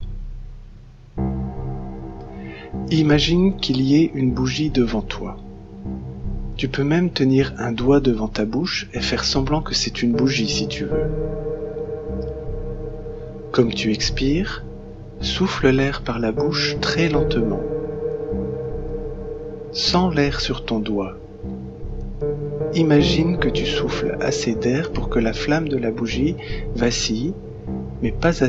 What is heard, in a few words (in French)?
relaxation respiration enfants